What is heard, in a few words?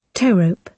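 "towrope", British English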